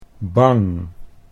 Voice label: "bang", Dutch